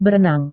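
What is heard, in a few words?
"berenang", Indonesian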